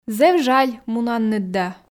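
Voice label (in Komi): зэв жаль мунінныд да, Komi, female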